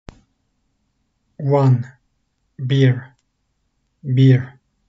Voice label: one 1, turkish; the talking turkish phrasebook